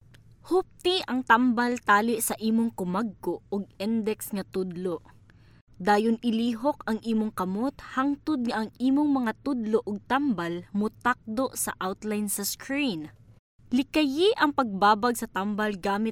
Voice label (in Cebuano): Cebuano Voice Sample, female voices